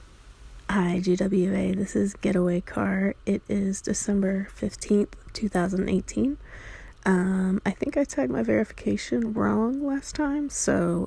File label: F Verification